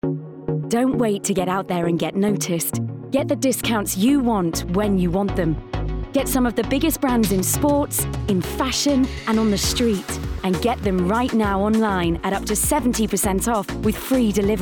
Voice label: Commercials Montage